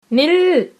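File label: stop, Tamil, female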